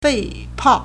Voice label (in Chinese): "fei4pao4", Chinese